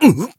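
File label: damaged, Japanese; claude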